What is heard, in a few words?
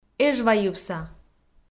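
"эжвайывса", Komi, female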